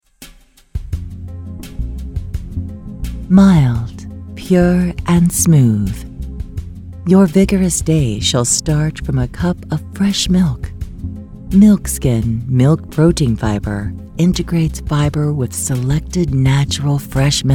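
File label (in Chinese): 外籍女英_外语_美式英语_美妆高端_优雅; DemoBettertex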